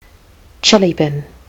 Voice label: "chilly bin", kiwi english